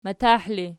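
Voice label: "matah" ergative, Archi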